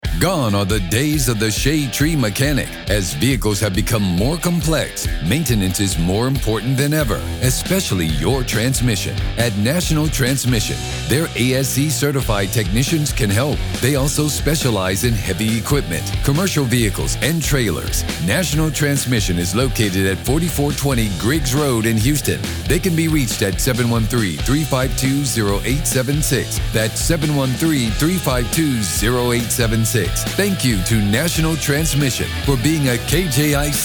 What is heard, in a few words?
Audio Ad; 90.5 KJIC; National Transmission